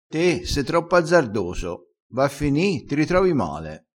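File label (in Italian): "azzardoso", vocabolario dialettale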